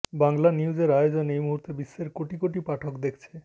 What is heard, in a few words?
bengali audio